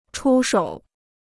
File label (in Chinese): "出手", Chinese